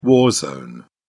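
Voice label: "war zone", English, British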